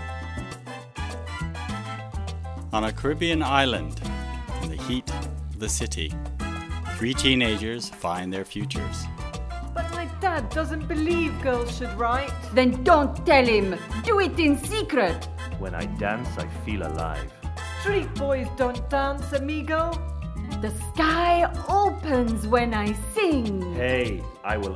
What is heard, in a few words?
movie trailer